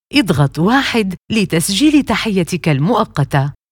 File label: Record Temporary Greeting, arabic